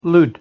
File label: "lood", Scots